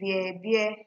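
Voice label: "bearbear", Nigerian Pidgin, female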